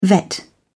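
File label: "vet", British English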